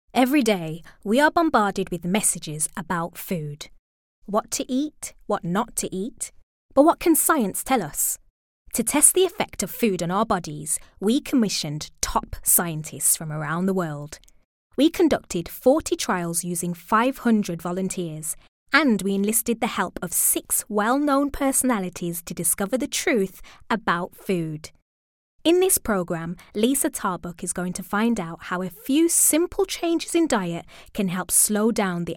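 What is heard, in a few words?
British English, Explainer; GoLocalise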